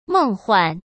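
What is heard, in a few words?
"梦幻", Mandarin Chinese; hsk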